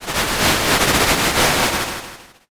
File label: whisper